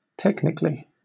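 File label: "technically", British English; pronunciations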